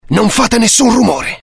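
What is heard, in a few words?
curious, Italian; mohaa; dialogue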